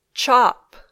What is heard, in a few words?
"chop", English